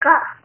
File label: toddler saying car